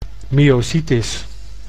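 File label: "myositis", Dutch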